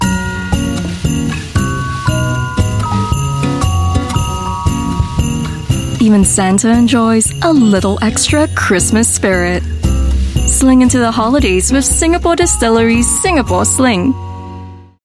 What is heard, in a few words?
Asian English; Christmas Sling